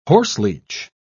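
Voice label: "horseleech", British English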